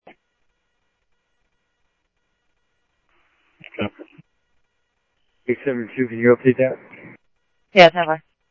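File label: Simulcast police department, scanner audio trunk; Mesa Simulcast; MESA PD 1 Fiesta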